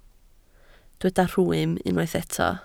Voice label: "rhwym", Welsh